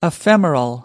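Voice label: "ephemeral", American English; AmericanBritish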